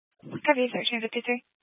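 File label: Fire Dispatch, scanner audio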